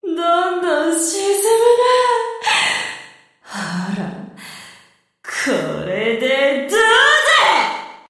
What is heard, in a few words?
Enemy Voice Submarine Shark Water Demon Attack